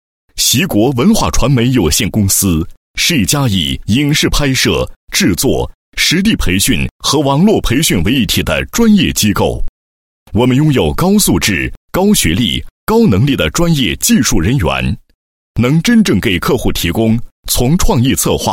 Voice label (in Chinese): 男 企业 宣传简介, 配音; 某传媒有限公司宣传简介